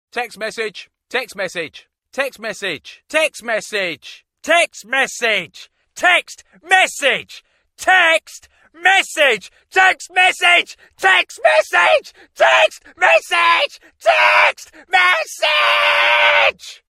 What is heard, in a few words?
shouting txt message ringtone